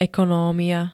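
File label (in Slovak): "ekonomia", Slovak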